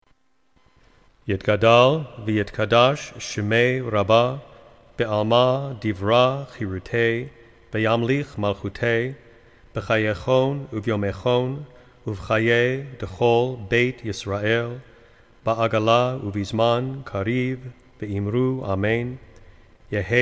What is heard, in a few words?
Kaddish Yatom read